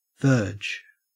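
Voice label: "verge", Australian English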